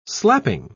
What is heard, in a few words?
"slapping", pronounce